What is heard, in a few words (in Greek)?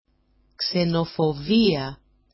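"ksenofovia", Greek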